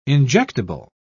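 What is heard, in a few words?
"injectable", British English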